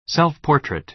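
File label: "self-portrait", American English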